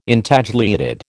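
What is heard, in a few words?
"Intagliated", American English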